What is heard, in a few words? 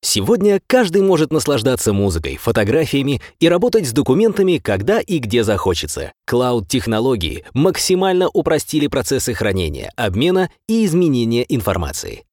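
positive, Russian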